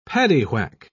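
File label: "paddywhack", British English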